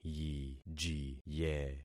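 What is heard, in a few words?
"yijiye", concatenated syllables; Affixing experiment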